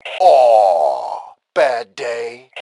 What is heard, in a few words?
Taunt Aww, Male; T2 Male 04